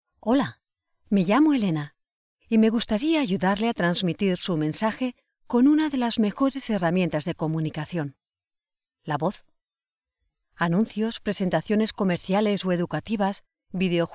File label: Spanish intro; voice